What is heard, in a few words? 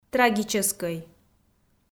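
"трагическӧй", Komi, female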